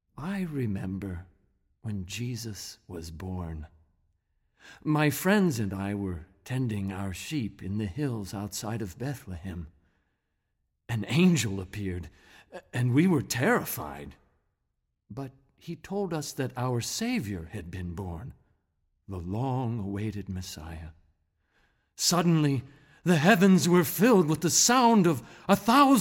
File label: Monologue Shepherd; living last words